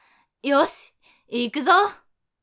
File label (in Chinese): 好，开始咯！, 女生; 俺娘